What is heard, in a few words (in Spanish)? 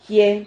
"jie", Silabas